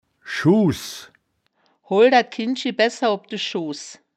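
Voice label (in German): "schuuhs", wittlicher woerterbuch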